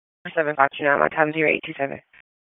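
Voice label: Police Southwest District Dispatch; Raleigh; wake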